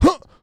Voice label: male ha; Aion e3

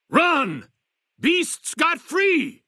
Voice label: maleold greeting; Fnv

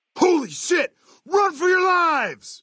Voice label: male adult; Fnv

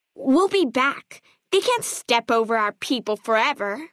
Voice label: female child greeting; FNV

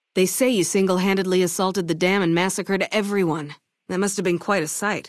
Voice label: female adult greeting

